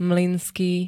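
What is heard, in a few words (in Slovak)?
"mlynský", Slovak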